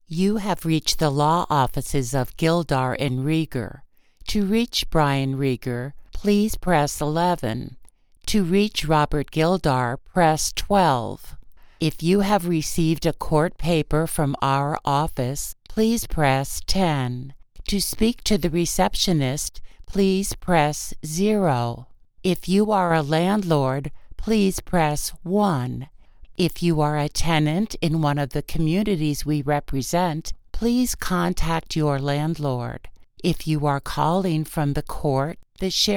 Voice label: IVR

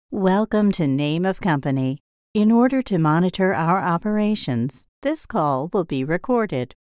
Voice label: telephone

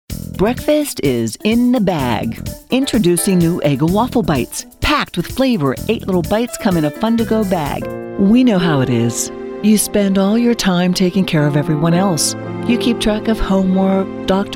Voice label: commercial

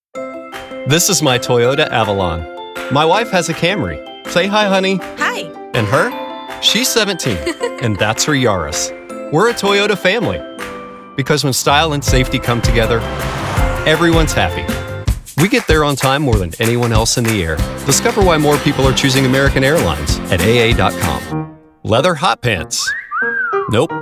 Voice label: ALT COMMERCIAL; NO GARMIN

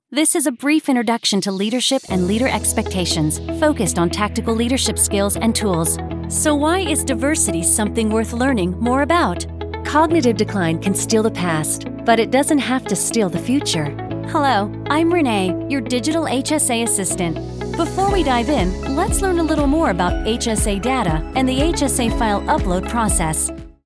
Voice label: E-Learning : Corporate

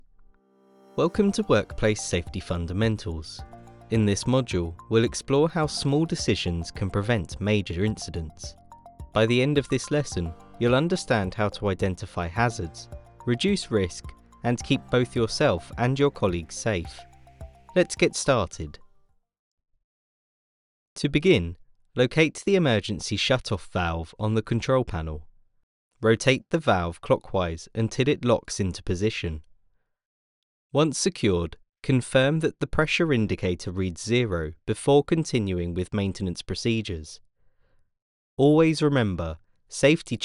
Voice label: E-Learning

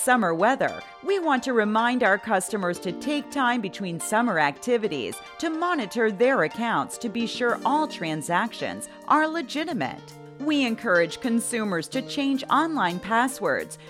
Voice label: On Hold IVR

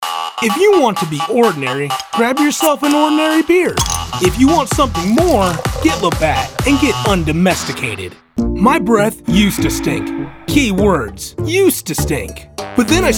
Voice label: commercial

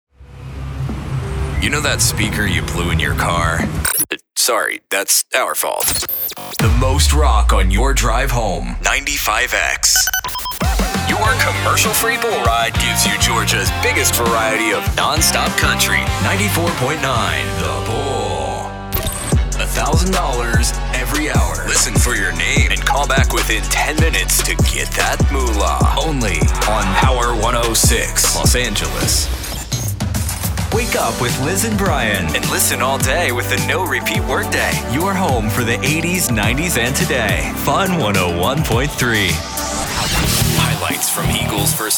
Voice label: imaging

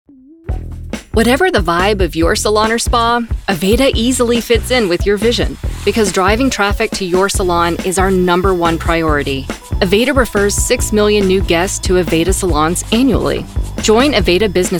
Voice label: EXPLAINER MIX

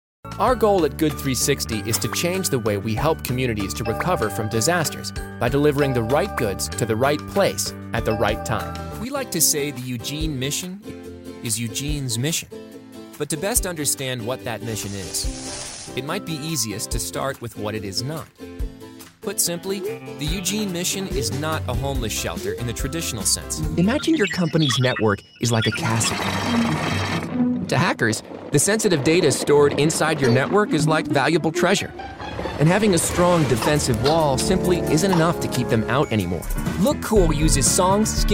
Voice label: Explainer and Whiteboard

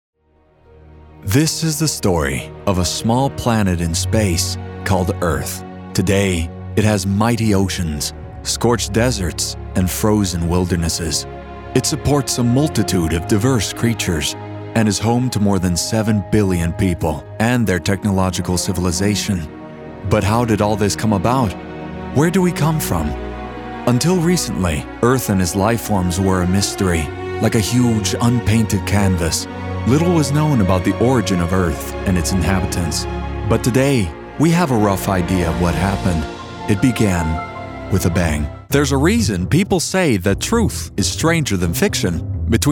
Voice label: Documentary Voicereel

